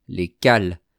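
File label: "les cals", French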